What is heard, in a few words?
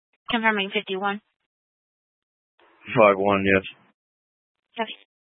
Mesa County Sheriff Dispatch